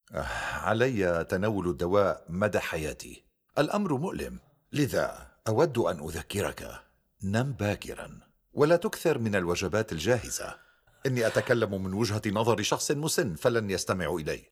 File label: Drama